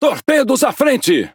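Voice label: Speech Alarms Torpedo Ahead, Brazilian Portuguese; World Of Warships; PT BR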